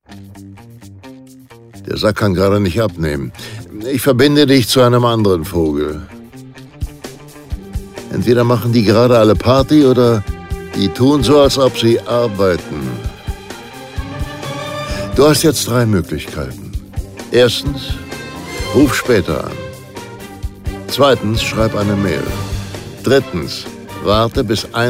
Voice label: Ansage

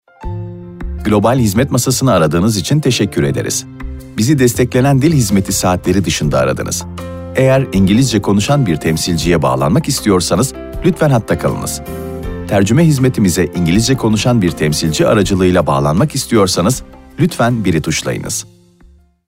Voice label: TR, Telefonansage

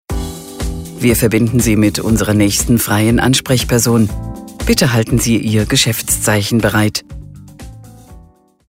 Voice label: Freie Leitungen, Telefonansage; telefonansage regierungspraesidium

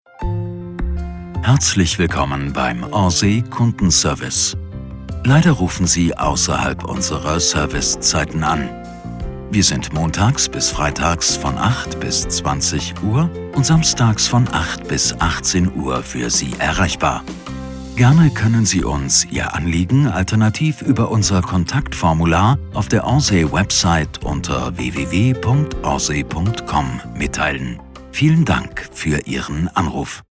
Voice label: Ansage; Orsay

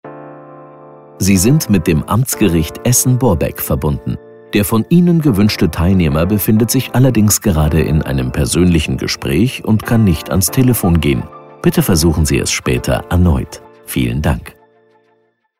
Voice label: Ansage; mtsgericht Essen

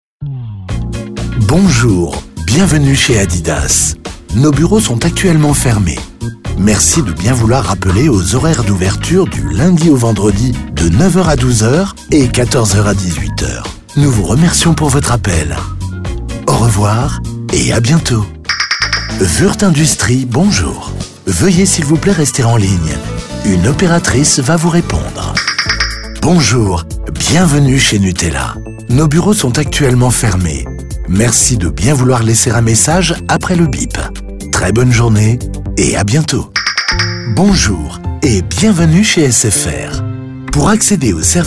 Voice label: telefonansagen sprecher fr

